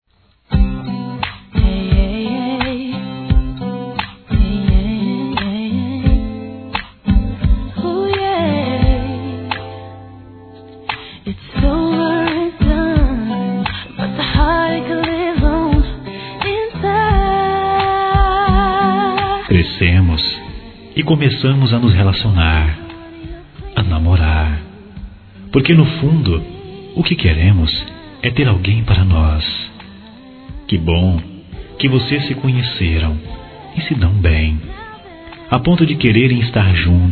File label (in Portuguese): Noivado Masculino; Telemensagens; Parabenização